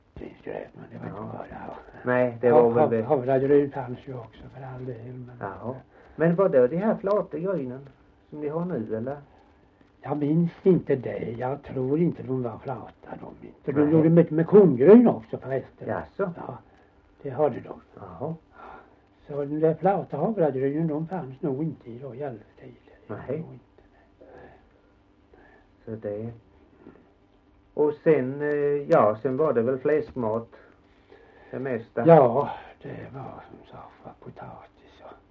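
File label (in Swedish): Gällared Halland, dialekter; Folkminnen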